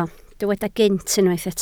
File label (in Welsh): "gynt", Welsh